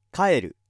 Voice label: male